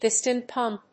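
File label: "PISTON PUMP", English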